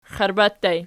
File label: xiarba ergpl, archi